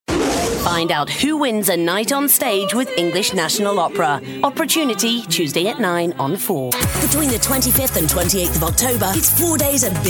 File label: Promos; Vocal Point